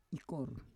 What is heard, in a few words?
"ikor", Roon